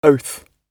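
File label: "oath", British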